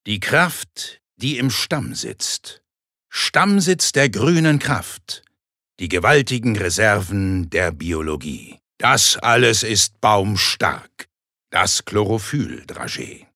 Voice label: Werbung Kraftvoll

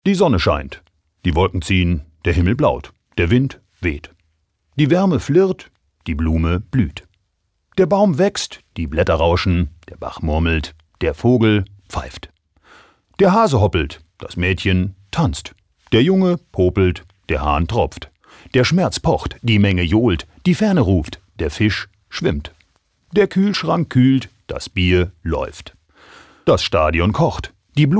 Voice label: Schauspiel Variabel

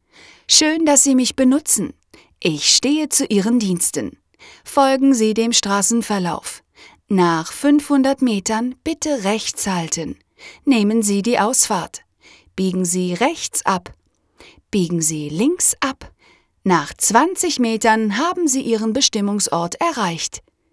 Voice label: Kommentar Neutral

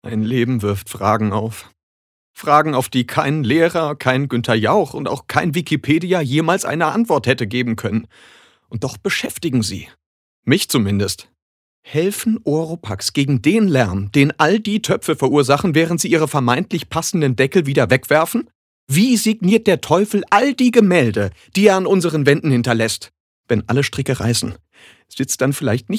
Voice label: Schauspiel Lebendig

